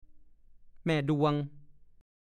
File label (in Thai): "แม่ดวง", ภาษาโคราช; โดยปริยายใช้เป็นคำเปรียบเทียบมีความหมาย เช่น แม่ทูนหัว